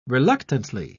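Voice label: "reluctantly", British English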